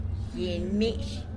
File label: "gyiny mish", tlacolula; chile pasillo